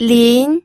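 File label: "lin", second tone, pinyin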